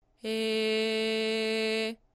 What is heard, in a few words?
"E", VOX